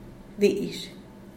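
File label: "dizh", Zapotec, Díaz Ordaz; palabra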